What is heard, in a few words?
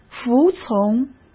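"服从", Mandarin Chinese; hsk; chinese-flashcards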